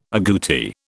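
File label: "aguti", British English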